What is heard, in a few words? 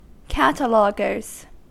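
"cataloguers", US English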